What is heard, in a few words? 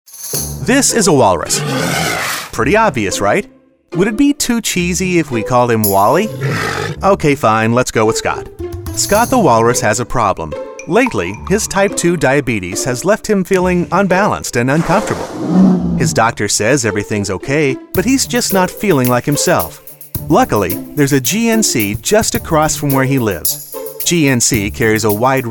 Explainer